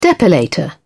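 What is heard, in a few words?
"depilator", English, British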